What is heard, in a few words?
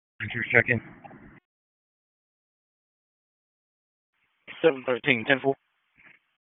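Sheriff's Office Dispatch; WSO DISP 1; wake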